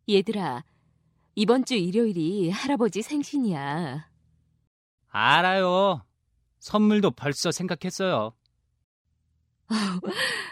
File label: english